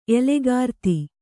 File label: "ಎಲೆಗಾರ್ತಿ", Kannada